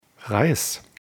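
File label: "Reis", German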